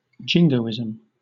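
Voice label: "jingoism", British English; pronunciations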